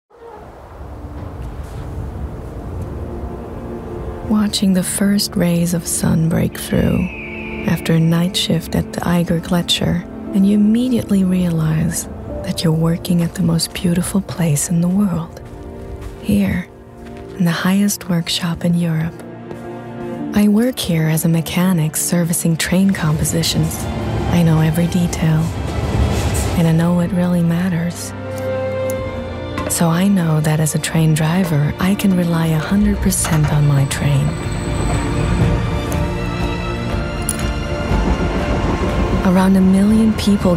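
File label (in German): US English, Kommentar Sanft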